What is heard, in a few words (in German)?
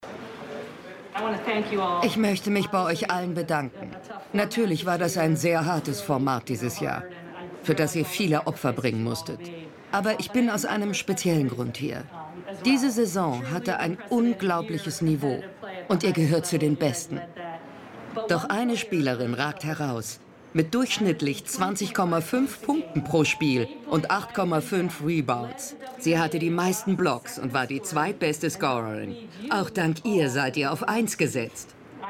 Voice Over, frauen; Canal+; WNBA